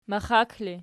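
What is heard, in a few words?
"maxak" ergative, Archi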